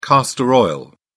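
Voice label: "castor oil", British English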